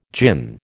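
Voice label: "djinn", American English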